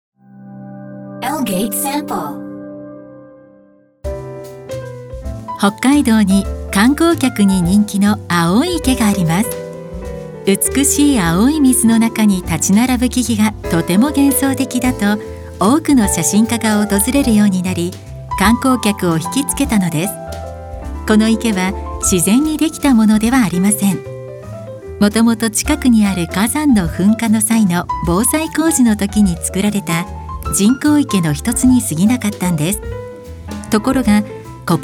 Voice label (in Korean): 일본 여 차분한 톤 홋카이도 홍보